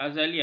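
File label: "azalea", Malay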